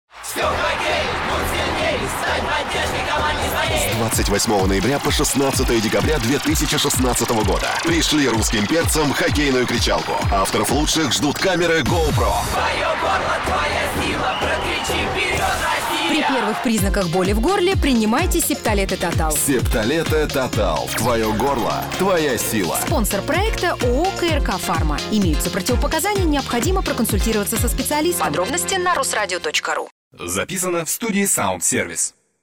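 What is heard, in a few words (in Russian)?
advert, Русский, Звонкий, Бархатный, Средний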